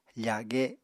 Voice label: "fage", Apatani